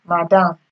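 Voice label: "madam", Nigerian Pidgin, female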